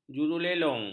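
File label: "jurulelong", Malay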